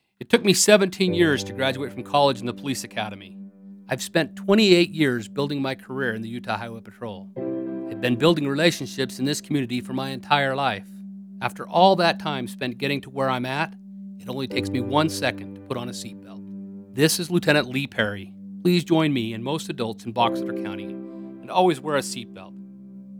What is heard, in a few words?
Radio Key Leader; Box Elder